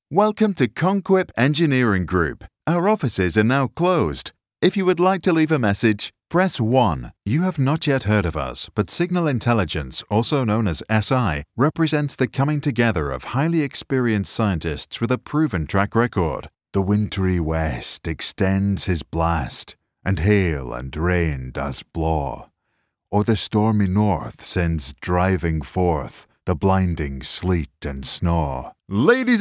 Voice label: Dry Studio Sample